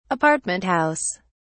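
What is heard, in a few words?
"apartment house", British English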